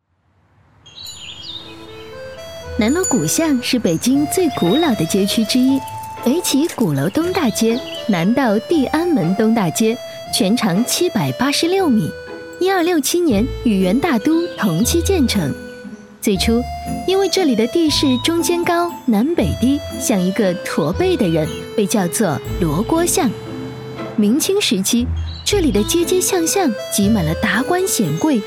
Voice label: 女 景点解说 活泼版

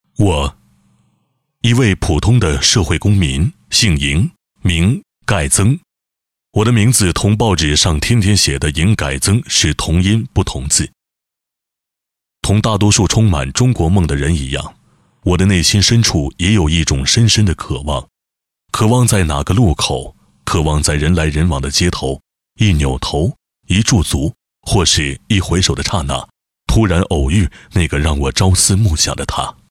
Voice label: 男 宣传片 宣传配音; 营改增-爱你没商量

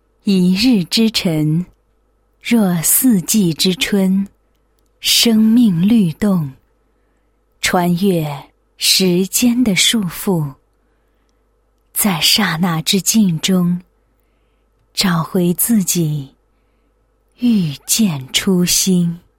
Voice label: 女, 诗歌, 瑜伽馆宣传诗歌

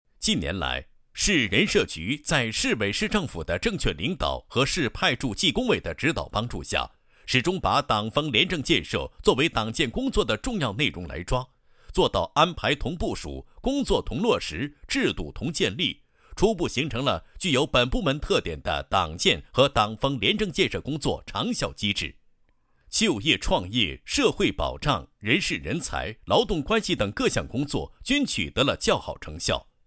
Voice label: 男 党建; 高密市人力资源和社会保障局党风廉政建设

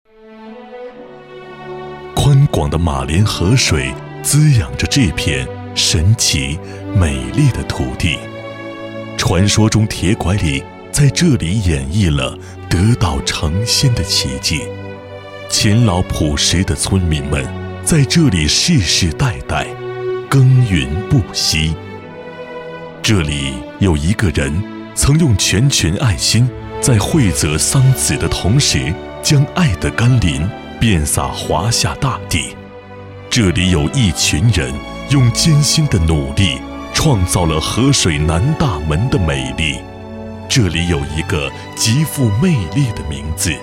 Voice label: 男60号 专题 深情大气; 国语男声配音; 交广主播; 合水南大门

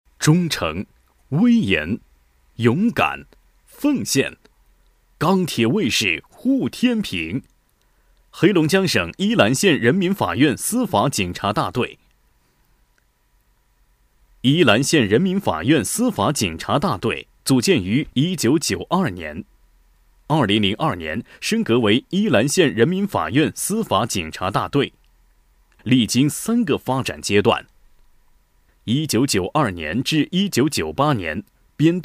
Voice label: 男 政府专题 汇报片 正式 司法警察大队

